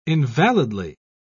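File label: "invalidly", English, uk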